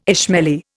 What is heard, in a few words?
"Ismaili", Indian